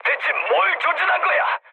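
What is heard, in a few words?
Korean player friendly fire mood med; War Thunder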